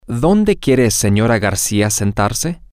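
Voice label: Spanish, where does Mrs want to sit, female; Garcia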